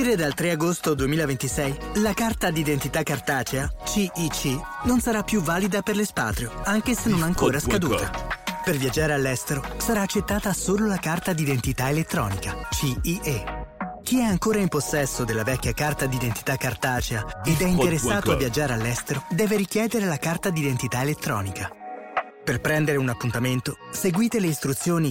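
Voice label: Spot Carte ID, Italian; Consulat Italie Marseille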